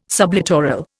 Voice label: "sublittoral", Indian

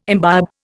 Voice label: "imbibe", Indian

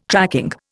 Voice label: "tracking", Indian

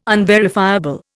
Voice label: "unverifiable", Indian

